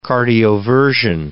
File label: "cardioversion", English